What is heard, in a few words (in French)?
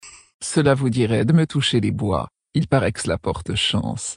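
Female Flirt, voix françaises; Tauren Haut Roc; Toucher Bois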